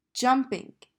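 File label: "Jumping", English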